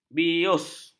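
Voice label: "bius", Malay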